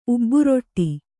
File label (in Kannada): "ಉಬ್ಬುರೊಟ್ಟಿ", Kannada